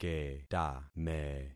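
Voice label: "ketame", concatenated syllables; Affixing experiment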